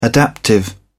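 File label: "adaptive", English, British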